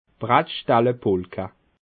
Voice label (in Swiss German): "brattstelle", Bas Rhin; la polka des bretzels